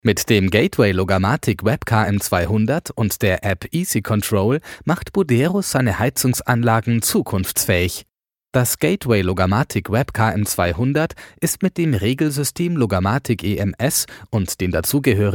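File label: DE M narration, German, male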